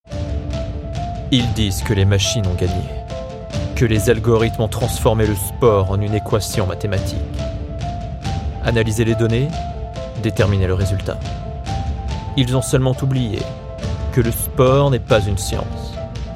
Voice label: languages men french commercial